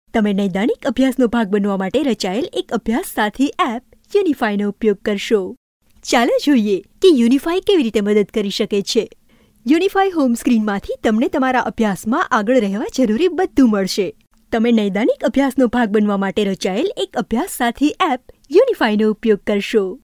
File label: GUJ F, gujarati voiceover samples